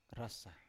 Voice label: "ras sa", Biak

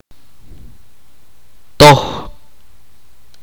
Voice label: "derecho", itza

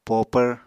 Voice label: "popvr", Apatani

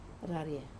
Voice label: "raria", day, Wamesa

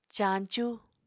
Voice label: "caañcú", Apatani

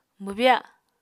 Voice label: "mwbya", Apatani

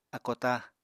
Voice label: "ákótá", Apatani

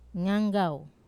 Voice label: "nganggau", confused, Wamesa

